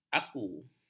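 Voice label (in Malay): "aku", Malay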